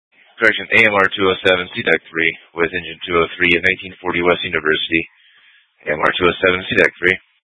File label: FD Dpts VHF 156.240 Patch